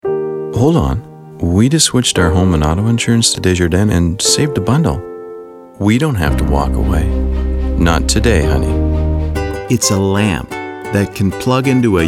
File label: commercial reel